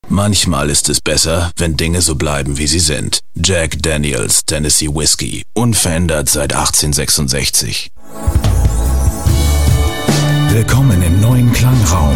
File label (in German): Querbeet von Werbung ueber Doku bis Hoerspiel